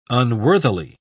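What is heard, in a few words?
"unworthily", English, British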